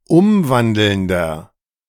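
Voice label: "umwandelnder", German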